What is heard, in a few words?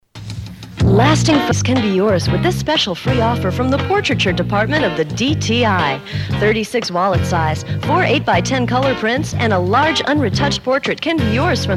Jingles Spoof Promo; Radio Laser 558